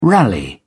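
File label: "rally", British English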